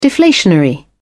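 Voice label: "deflationary", British English, dictionary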